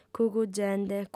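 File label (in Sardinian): "cugugende", female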